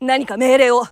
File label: japanese Idle; azurlane hoi4; azurlane tj